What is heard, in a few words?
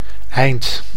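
"eind", Dutch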